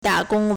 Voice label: "da3 gong1", Chinese